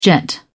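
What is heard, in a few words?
"jet", British English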